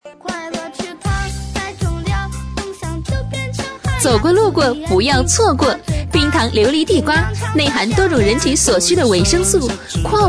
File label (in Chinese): 女声 促销 琉璃地瓜（甜美激情）